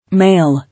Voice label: "Mail", English